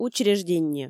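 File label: "учрежденньӧ" Komi, female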